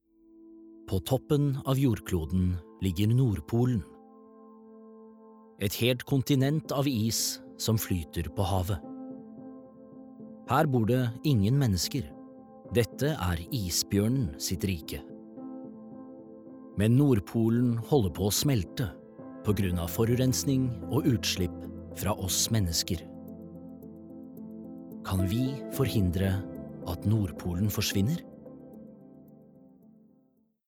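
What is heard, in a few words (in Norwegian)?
dokumentar, Norwegian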